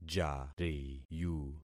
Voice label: "jariyu", concat syllables; Affixing experiment